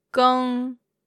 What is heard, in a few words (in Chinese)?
"geng1", Chinese